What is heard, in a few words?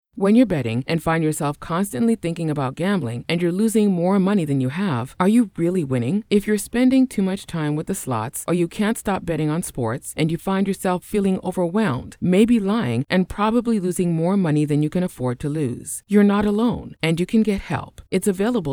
female